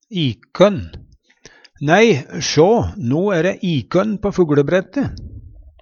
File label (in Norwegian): ikønn, Numedalsmål